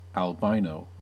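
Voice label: "albino" US English; pronunciations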